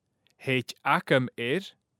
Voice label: thèid agam air, Gaelic; I can (manage) …; Painting and papering